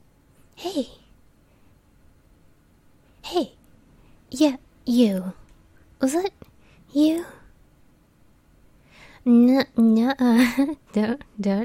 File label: Catperson - Friendly; Character Study